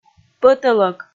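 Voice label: "пӧтӧлӧк", Komi, female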